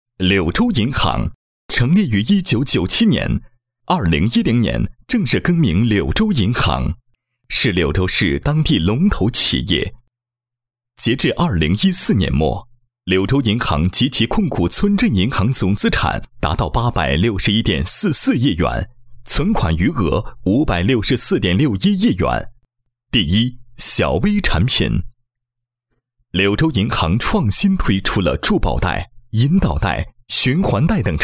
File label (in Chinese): 男 广告宣传 银行宣传片; 柳州银行